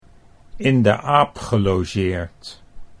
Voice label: "in de aap gelogeerd", Dutch